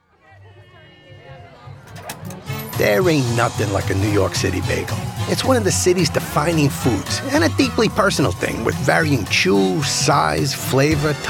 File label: American NYC Accent